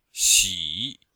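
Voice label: "xi3", Chinese; Cours de Chinois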